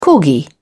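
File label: "corgi", British English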